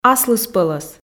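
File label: "аслыспӧлӧс", Komi, female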